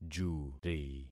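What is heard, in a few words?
"juri", concatenated syllables; Affixing experiment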